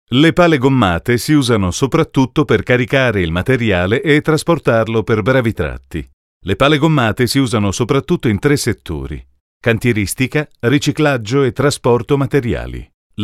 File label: ITA Narration; volvo